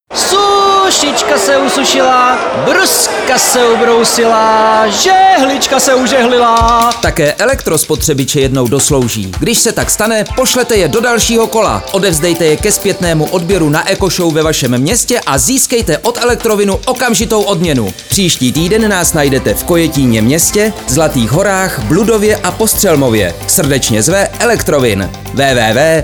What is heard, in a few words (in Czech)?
radio; ELEKTROWIN; Zatocte s elektroodpadem